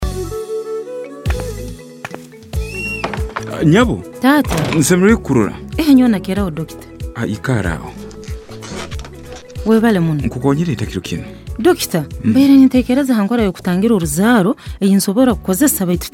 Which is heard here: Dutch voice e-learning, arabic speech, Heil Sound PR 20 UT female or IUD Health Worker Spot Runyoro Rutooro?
IUD Health Worker Spot Runyoro Rutooro